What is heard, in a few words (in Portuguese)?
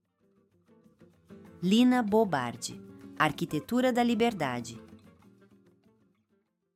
narracao